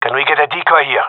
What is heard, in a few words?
gendermerie male; request decoy; Counter Strike Model Pack; CS:GO